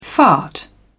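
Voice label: "fart", British English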